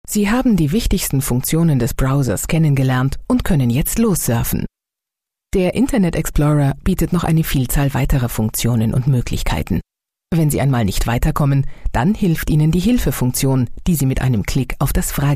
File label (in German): germany female filmvertonung sprecher